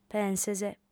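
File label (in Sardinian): "penses", female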